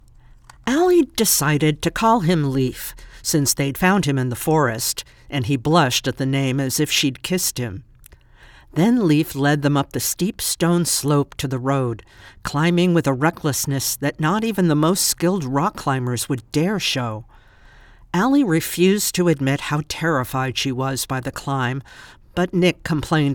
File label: YA Fiction Multiple Character POV; Everlost